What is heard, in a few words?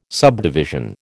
"subdivision", American